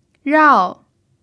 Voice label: "rao4", Chinese